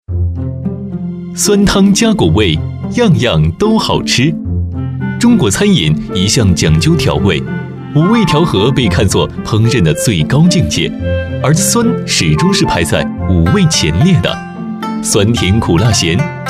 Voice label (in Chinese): 男 纪录片; 贵州酸汤